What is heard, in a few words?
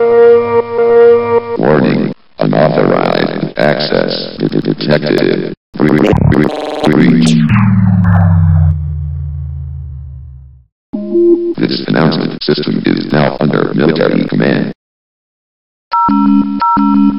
military announcement; sven; vox